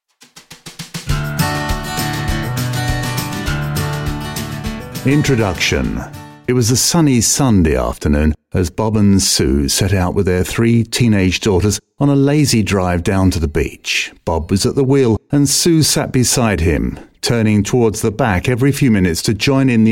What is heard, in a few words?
Audiobooks; Voice-over demos